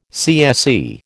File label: "CSE", American